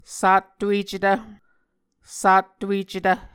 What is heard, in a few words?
"sattuecetv", Muscogee